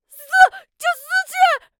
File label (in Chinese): 小孩喊着火啦